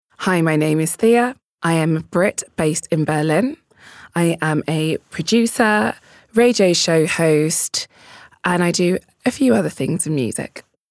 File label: Vorstellung, Commercial (Werbung), English UK; LOFT VOICES